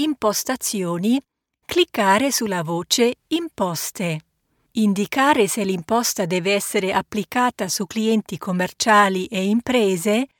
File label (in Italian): Italiano video didattico; Fongione